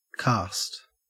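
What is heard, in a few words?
"karst", Australian English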